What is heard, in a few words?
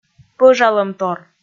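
"пӧжалӧмтор", Komi, female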